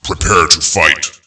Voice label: prepare, Announcer Voice Lines; Unreal Tournament